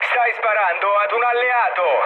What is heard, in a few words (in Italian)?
player friendly fire, mood low, speech it